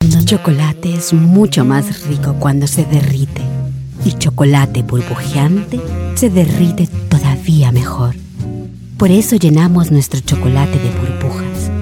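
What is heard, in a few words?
Latin American Spanish, Commercial; GoLocalise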